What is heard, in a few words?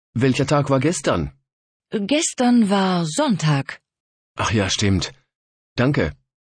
dialog